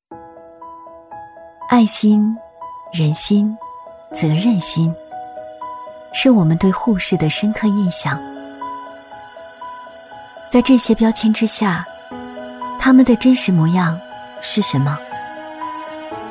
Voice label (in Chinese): 女 走心宣传片 走心轻松; 年轻甜美; 温和抒情; 护士节